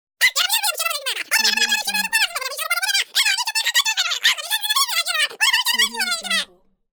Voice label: cartoon minion fussing